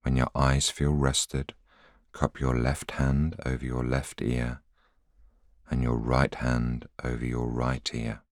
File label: voiceover